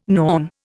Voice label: "Norn", Indian